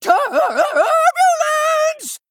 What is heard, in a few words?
Male Gnome Attack